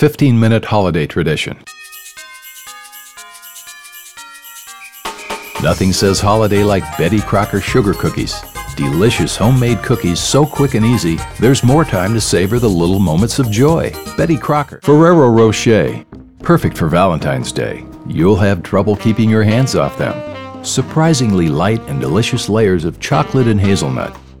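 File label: commercial voice over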